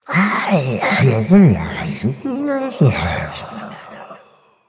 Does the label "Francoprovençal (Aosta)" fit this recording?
No